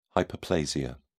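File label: "hyperplasia", British English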